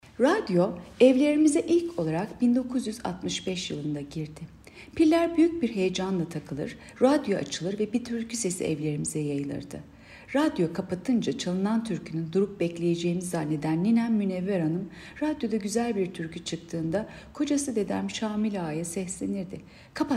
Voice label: turkce